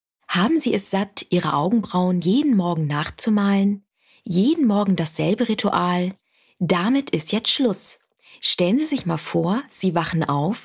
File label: GERMAN